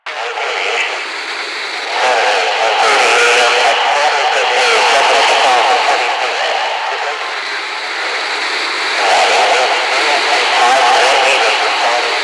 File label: Ambient Propaganda Loop; Warframe; Ambience; Nineteen Ninety Nine